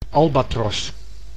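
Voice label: "albatros", Dutch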